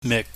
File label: "mik", pingyam